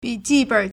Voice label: "bi3ji4ben3", Chinese

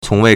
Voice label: "cong2 wei4", Chinese

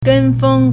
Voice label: "gen1 feng1", Chinese

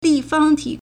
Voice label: "li4fang1ti3", Chinese

